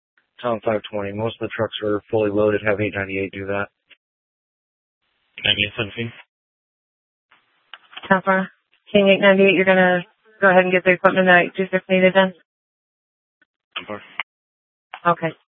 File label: Sheriff, scanner; Maricopa County (P25) - Thompson Peak; Shrf Lakes